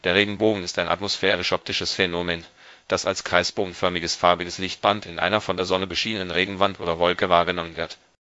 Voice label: speaker, de_DE; piper voices